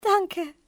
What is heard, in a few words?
thank, civ fmale; XBOX